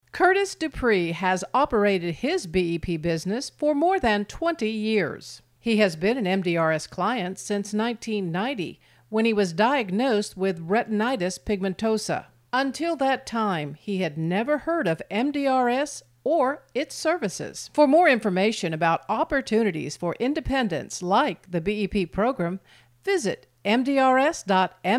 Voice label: Narration